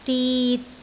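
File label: "dit3", Cantonese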